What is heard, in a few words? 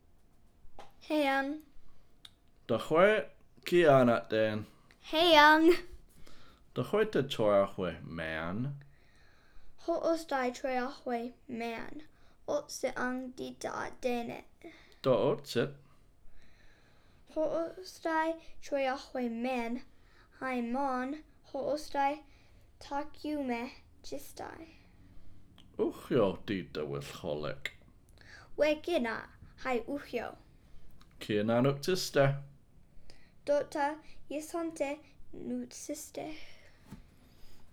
conversation, Hupa